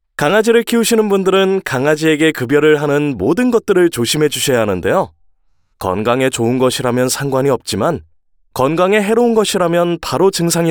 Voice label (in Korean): 내레이션 밝고 힘찬